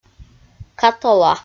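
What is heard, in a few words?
"катола", Komi, female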